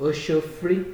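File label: "osho free", Nigerian Pidgin, male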